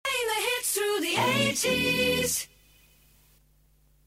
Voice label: 80s Hits Thru 80s, jingles